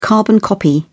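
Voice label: "carbon-copy", English, British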